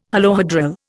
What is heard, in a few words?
"holohedral", Indian